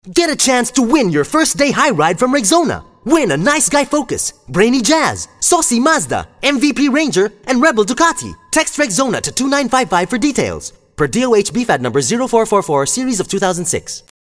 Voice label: English (Selling, Promo)

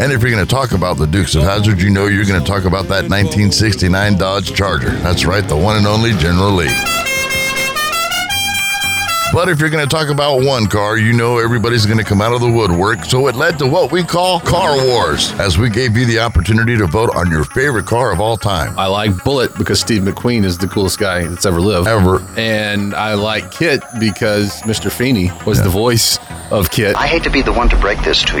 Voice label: MORNING PROMO

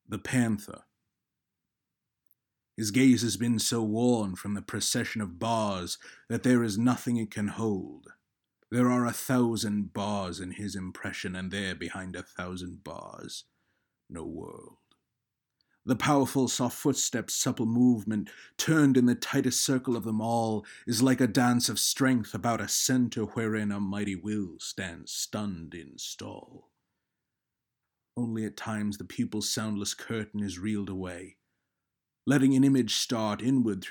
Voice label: English

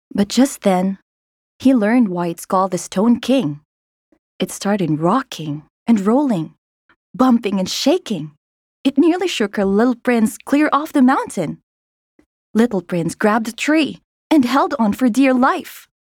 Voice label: English (Expressive, Engaging)

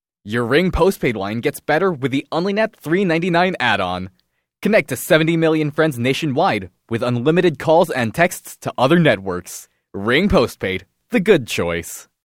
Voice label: English (Energetic)

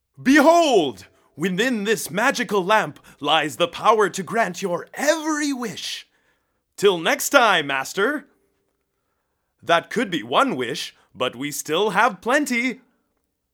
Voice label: English, Character; Character Genie

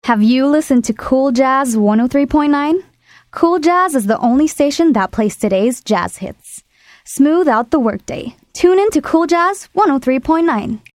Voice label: English (Smooth, Elegant, Sultry)

